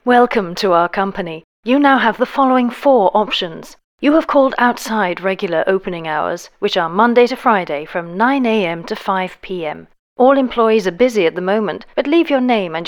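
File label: English female formal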